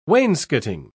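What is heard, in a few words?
"wainscoting", British English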